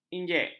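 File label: "injek", Malay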